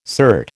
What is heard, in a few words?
"cert", American